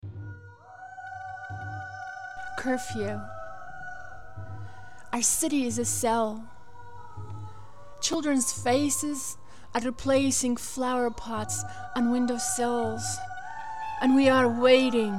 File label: English; Ibtisam Barakat; Curfew